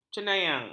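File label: "cenayang", Malay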